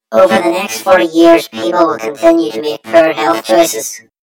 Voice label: story voiceover; yumpod